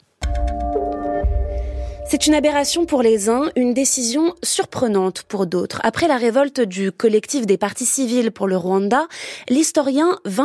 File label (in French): France Culture journal; journal 12h30; non-lieu; Duclert